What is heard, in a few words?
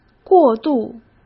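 "过度", Mandarin Chinese; hsk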